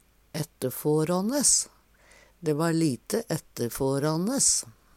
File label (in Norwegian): etterfårånes, Numedalsmål